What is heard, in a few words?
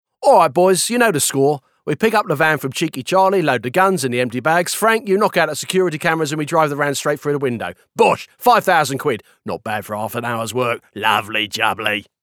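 British character cockney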